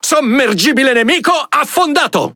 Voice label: Speech Destroy Enemy Sub, IT; World Of Warships

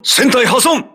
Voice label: hull breach, voice message, crew ships japanese

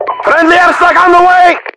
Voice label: friendly air, voiceovers english

